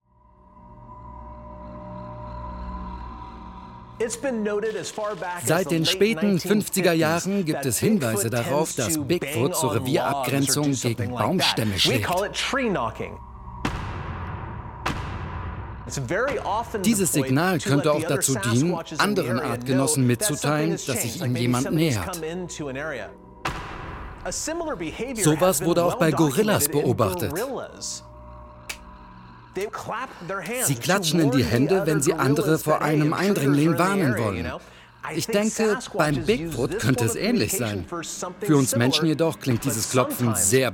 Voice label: Voice Over; BigFoot

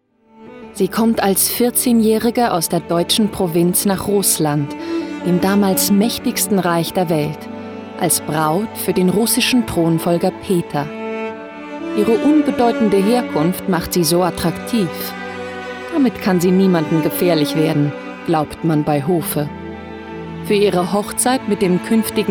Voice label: Doc German; LOFT VOICES

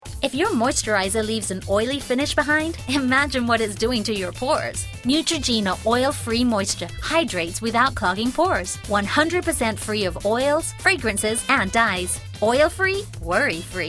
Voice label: us accent commercial

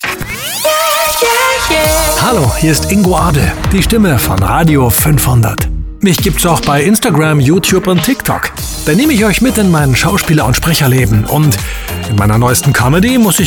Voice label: RADIO StationVoice Comedy; RADIO 500

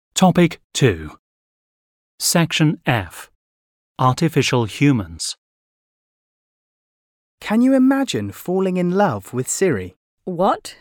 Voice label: Elearning UK

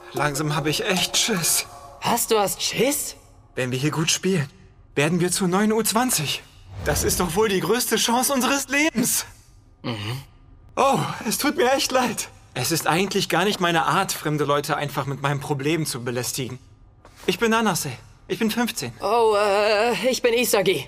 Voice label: Anime, Lip-Sync (Synchron), German; Anime Serie; voice; Anime Serie: Blue Lock

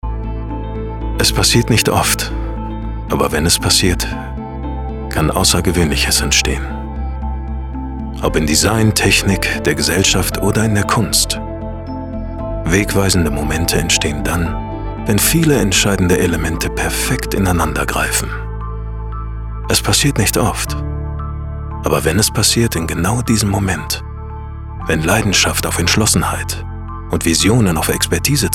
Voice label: Emotional

